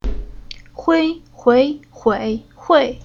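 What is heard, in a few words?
"Hui", Chinese syllable; Уроки фонетического блока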